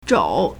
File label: "zhou3", Chinese